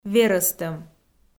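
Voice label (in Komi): "верӧстӧм", Komi, female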